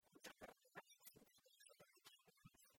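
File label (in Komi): кутшӧм рӧмаӧсь сыктывкарса выль керкаяс, Komi, female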